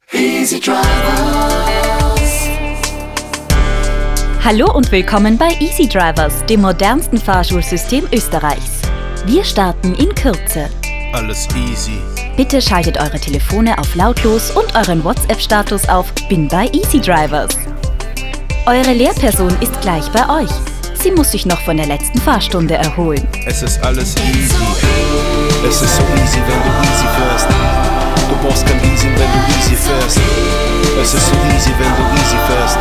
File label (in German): Telefonansage; Easy Drivers